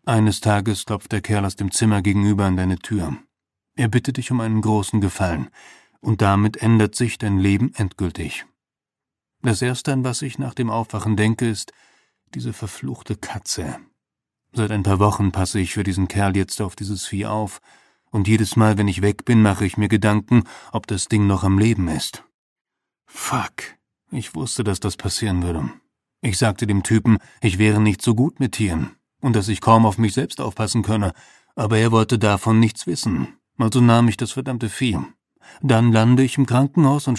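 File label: German Audiobook Male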